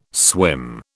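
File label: "swim", British English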